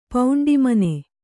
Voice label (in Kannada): "ಪೌಂಡಿಮನೆ", kannada